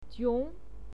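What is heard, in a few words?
"jiong", Mandarin Chinese pinyin, second tone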